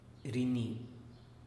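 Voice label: "rini", Teotitlán del Valle Zapotec; hablar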